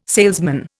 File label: "salesman", Indian